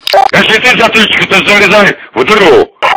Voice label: hurt; tf; polkovnik